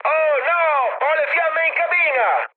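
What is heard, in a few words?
Italian on fire mood high, speech; War Thunder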